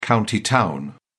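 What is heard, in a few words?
"county town", British English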